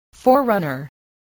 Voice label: "forerunner", English voice, spelling